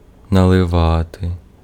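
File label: Ukrainian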